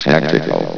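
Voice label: "tactical", male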